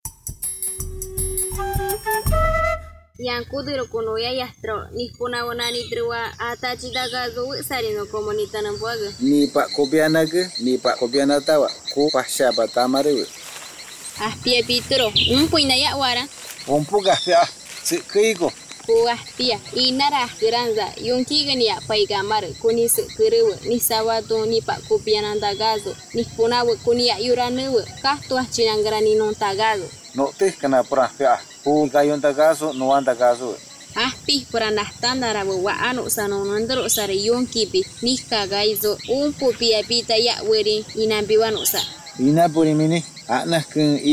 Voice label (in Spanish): shawi 2 voces, spot de radio; uso de mascarillas; medidas de prevencion